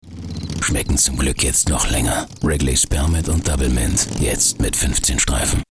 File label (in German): werbung; Wrigley